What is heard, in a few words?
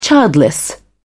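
"childless", British English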